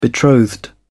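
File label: "betrothed", British English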